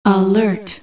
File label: alert, feminines